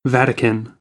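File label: "vatican", American, English; AmericanBritish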